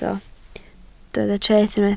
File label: "treth", Welsh